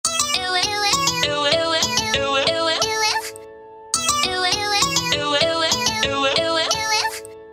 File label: Uwu, Nokia